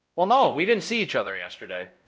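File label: Voice Acting Spanish, male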